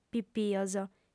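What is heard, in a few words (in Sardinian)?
"pipios", female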